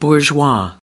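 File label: "bourgeois", US English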